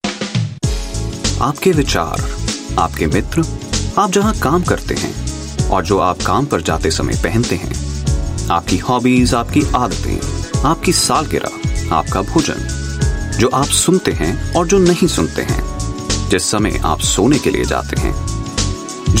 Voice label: Hindi, India Male